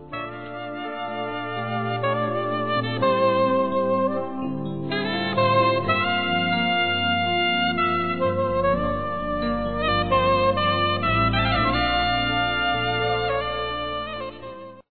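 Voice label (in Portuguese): Cliente amiga, Desculpas, Telemensagens, female; Telemensagens 2017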